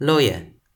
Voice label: "loje", Toki Pona

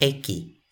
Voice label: "eki", Toki Pona

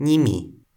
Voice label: "nimi", Toki Pona

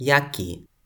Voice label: "jaki", Toki Pona

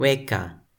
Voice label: "weka", Toki Pona

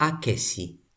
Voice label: "akesi", Toki Pona

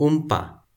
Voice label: "unpa", Toki Pona

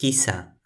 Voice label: "kisa", Toki Pona

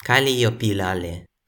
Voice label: "kalijopilale", Toki Pona

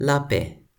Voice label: "lape", Toki Pona; slapen: Dutch, sleep

